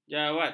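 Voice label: "jawat", Malay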